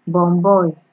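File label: "bomboy", Nigerian Pidgin, female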